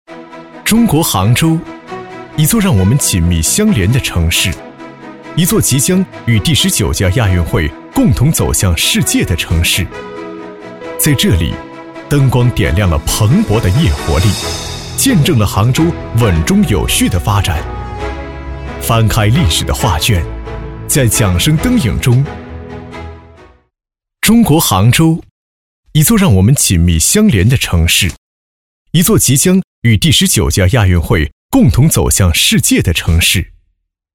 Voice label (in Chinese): 男-时尚 洋气; 杭州亚运会; 大气主流; 年轻稳重